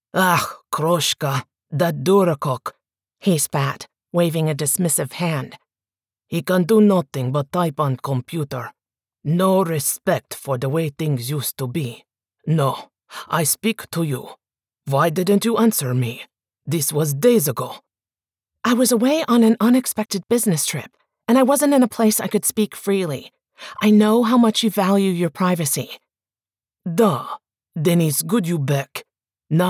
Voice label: ACCENT RUSSIAN MF dialogue comedic